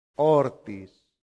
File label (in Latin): "hortis", latin; santillana